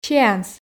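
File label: "сеанс", Komi, female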